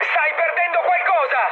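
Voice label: Italian tank damaged mood high, speech; War Thunder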